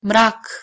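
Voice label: "mrak" Bosnian Slovenian, female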